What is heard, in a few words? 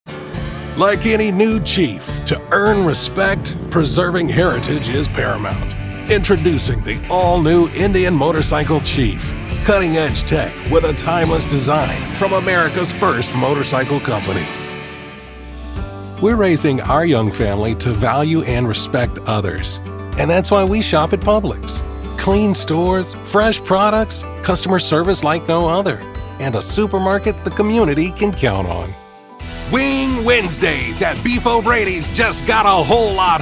Commercial